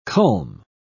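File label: "culm", British English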